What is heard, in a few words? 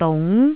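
"dung2", Cantonese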